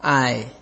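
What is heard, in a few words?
"I", Nigerian Pidgin, male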